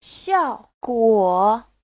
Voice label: "xiao4guo3", Chinese; Giáo trình Hán ngữ

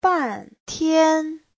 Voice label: "ban4tian1", Chinese; 半天; nửa ngày, hồi lâu

